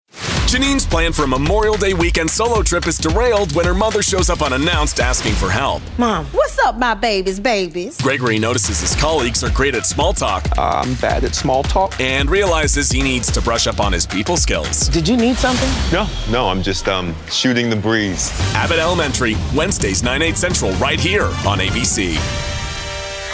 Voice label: promo voiceover; ABC